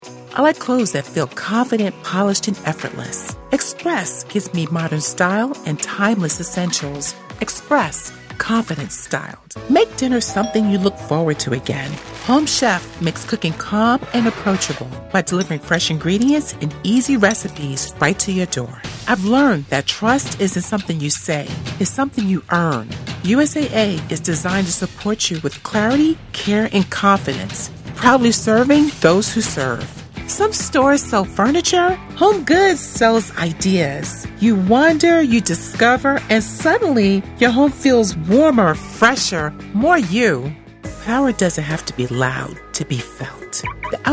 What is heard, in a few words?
Commercial